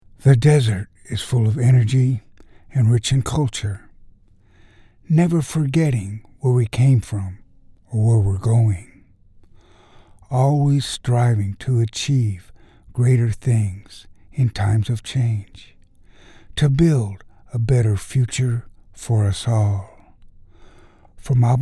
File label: Voiceovers